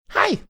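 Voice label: "hi", male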